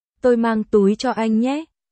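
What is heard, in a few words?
Vietnamese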